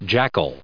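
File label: "jackal", English, us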